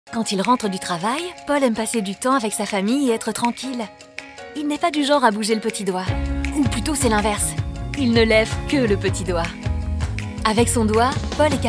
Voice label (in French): Voix Off; TOTALGAZ; total gaz site pro